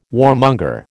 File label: "warmonger", American